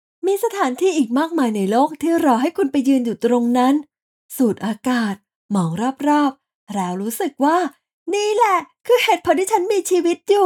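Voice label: Ads Travel, Radio Commercials, Female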